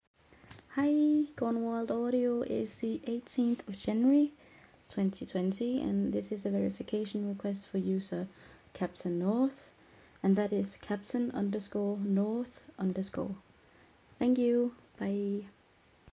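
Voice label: Verification, F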